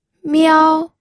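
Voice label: "miao", first tone, pinyin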